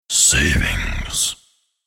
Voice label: "Savings" Spoken, The word 'Savings' spoken in deep male voice.; Recorded Voice